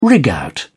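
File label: "rig out", British English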